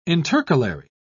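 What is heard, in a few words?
"intercalary", British English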